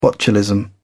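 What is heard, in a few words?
"botulism", British English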